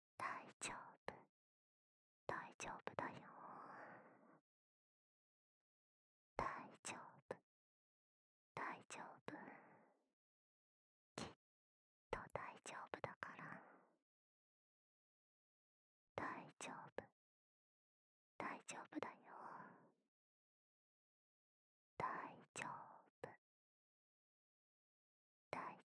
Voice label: 囁き 右耳 大丈夫だよ

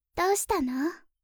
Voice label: どうしたの?, 天然; ハイグレボイス集

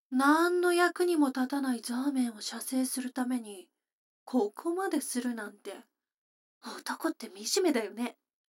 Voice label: 女の子台詞

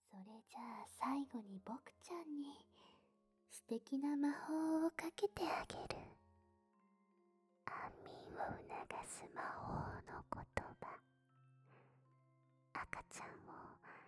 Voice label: 添い寝シーン; 甘園房シリーズ; ゆかりママとうちで過ごそう

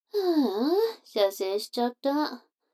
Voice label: 女の子 台詞, ASMR

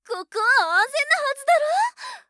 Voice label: ここは安全なはずだろ！?, ギャル; ハイグレボイス集

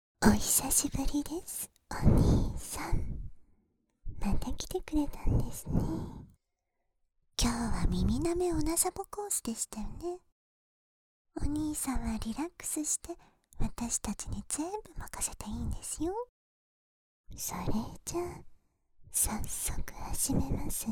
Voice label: 両耳舐め オナサボ カウントダウン

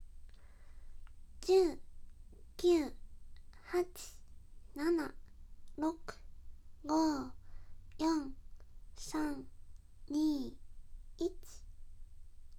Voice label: カウント バイノーラル

